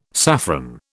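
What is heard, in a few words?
"saffron", British English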